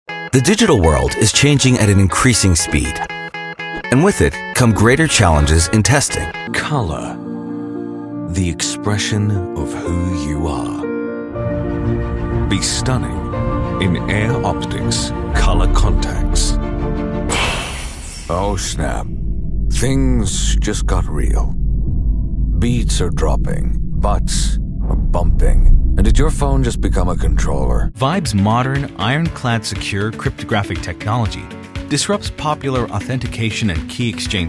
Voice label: Mid Atlantic Neutral English Commercial

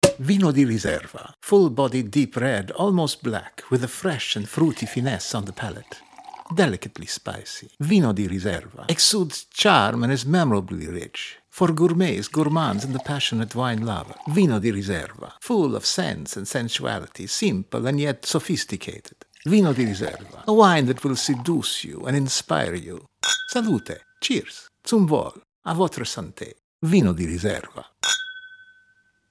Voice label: radio spot voice FX; Vino Di Riserva

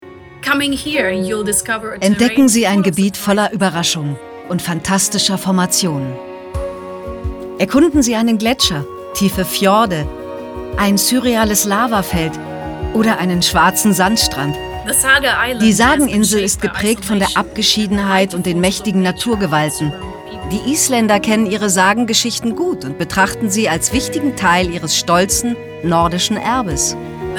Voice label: Voice Over; Island

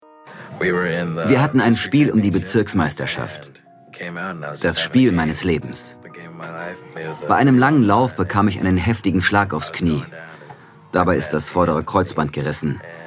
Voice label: ruhig emotional VO; Inside Pediatrics

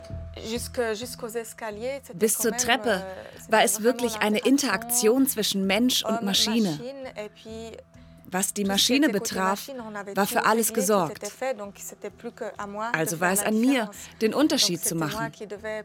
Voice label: VoiceOver; Quest

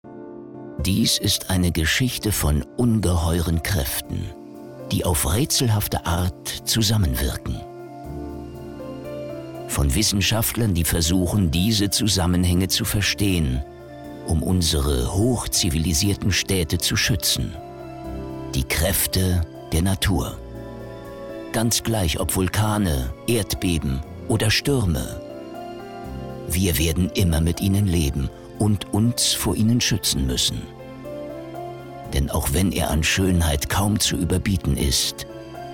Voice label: trailer; terrax; LOFT VOICES

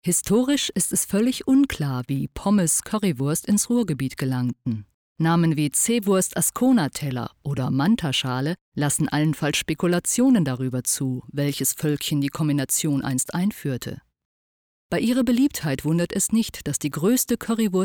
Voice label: narration documentary

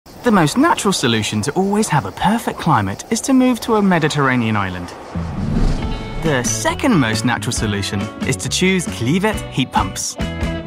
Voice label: mediterranean Commercial; CLIVET

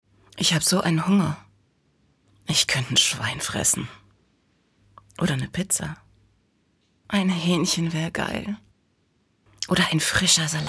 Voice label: soft smart

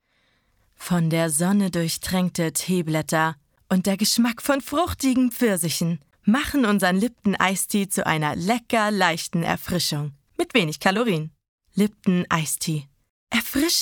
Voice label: Spot; Lipton; LOFT VOICES

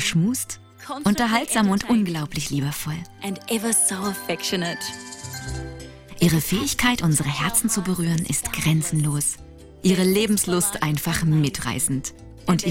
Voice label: voice over dvd welpen

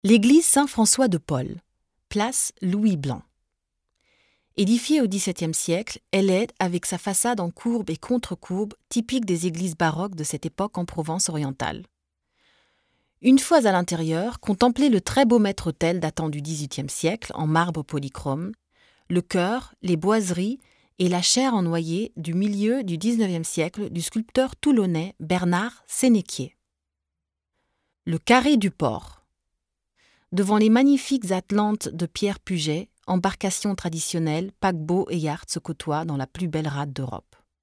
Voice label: FR F Audioguide

